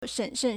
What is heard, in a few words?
"shen3shen4", Chinese